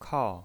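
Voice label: "kao", fourth tone, pinyin voice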